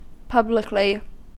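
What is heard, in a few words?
"publicly", US English; pronunciations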